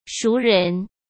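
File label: "熟人", Mandarin Chinese; hsk; chinese-flashcards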